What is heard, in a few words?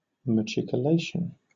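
"machicolation", British English; pronunciations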